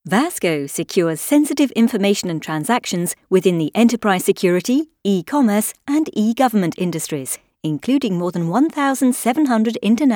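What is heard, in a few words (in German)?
British English, Fremdtake Freundlich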